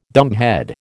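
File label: "dumbhead", American English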